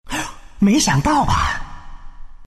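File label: deny, Chinese; Dota 2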